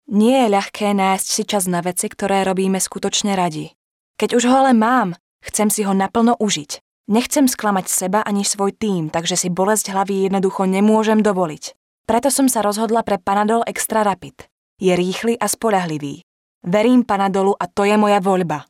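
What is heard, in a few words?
Female Slovak commercial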